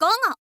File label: girl